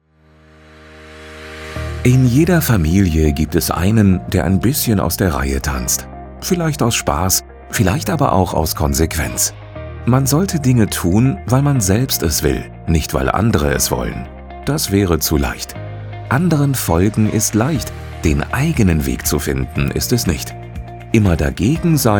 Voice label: Emotional Imagefilm

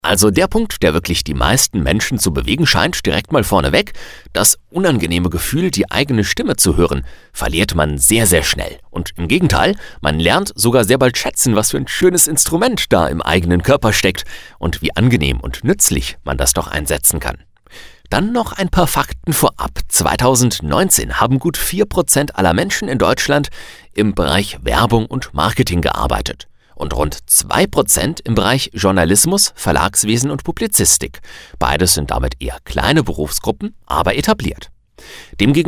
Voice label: Hoereindruck eLearning

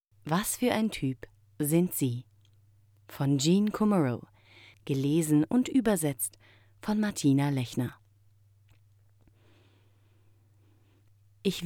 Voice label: Dokumentation

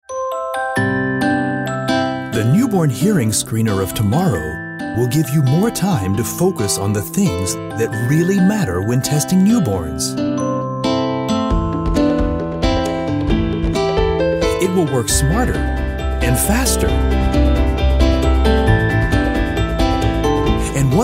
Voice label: ENGLISCH INTERNATIONAL MALE Imagefilm; Maico; easyscreen

